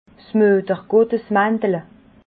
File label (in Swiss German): "muetergottesmantele", alsace